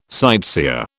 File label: "sightseer", British